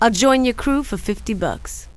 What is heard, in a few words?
50 bucks, female; kingpin; hiredgirl